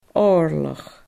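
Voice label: "oirleach", Scottish Gaelic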